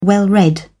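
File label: "well-read", British English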